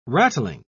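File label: "rattling", British English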